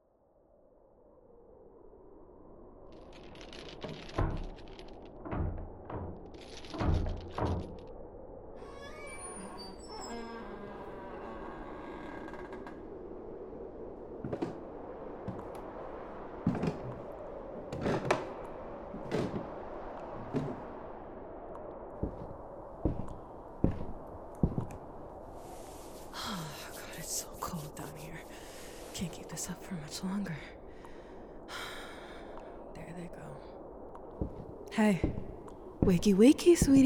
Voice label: Yandere Captor F4M (SFX No Wet)